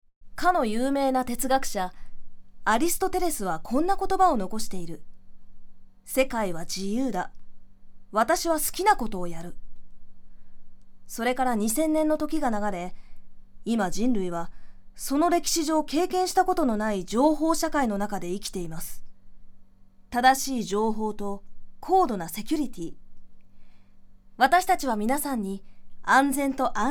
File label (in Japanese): CMナレーション